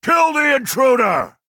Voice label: orc clansman attack